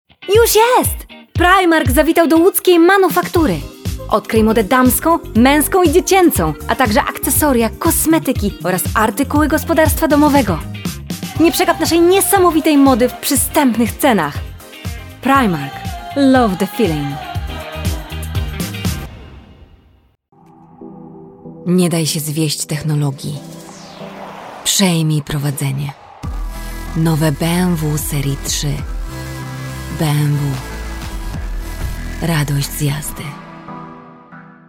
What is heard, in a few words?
Polish